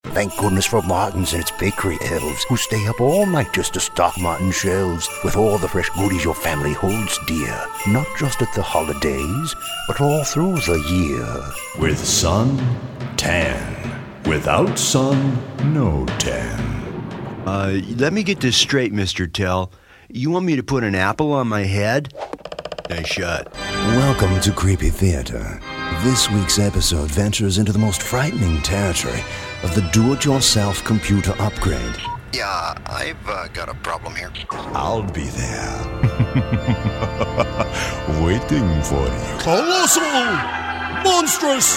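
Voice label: CHAR, character voice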